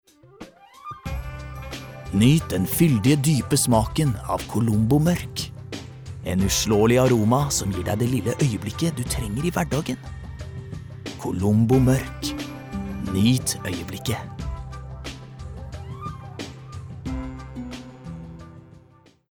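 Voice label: Norwegian soft sell, male; norsk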